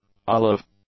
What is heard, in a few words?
"olive", English; adjectives